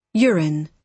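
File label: "urine", British English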